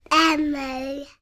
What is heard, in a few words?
human toddler 18 months boy say word gibberish